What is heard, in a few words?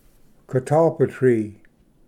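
"catalpa tree", English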